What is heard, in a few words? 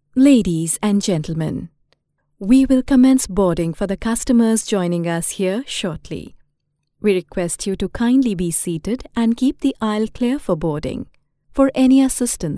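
English Indian Female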